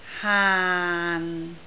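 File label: "haan6", Cantonese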